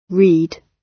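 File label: "read", British English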